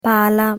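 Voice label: "pala", Italian pronunciation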